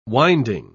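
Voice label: "winding", British English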